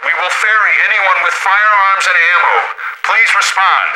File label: radio initial broadcast